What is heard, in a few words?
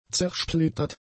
"zersplittert", German; adjectives